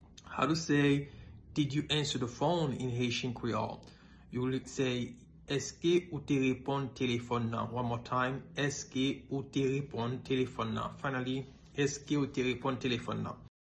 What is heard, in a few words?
Did you answer the phone in Haitian Creole Eske ou te reponn telefon lan pronunciation